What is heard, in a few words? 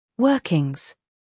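"humor", British; workings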